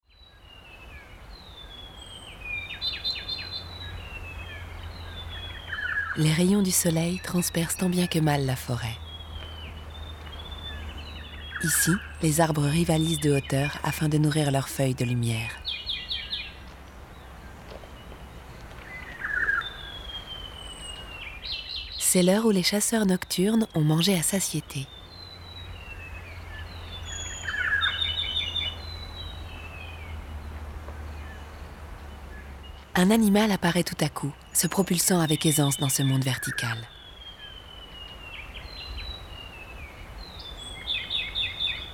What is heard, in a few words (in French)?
Bonjour docu